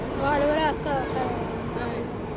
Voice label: woman in cafe